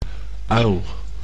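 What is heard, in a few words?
"uil", Dutch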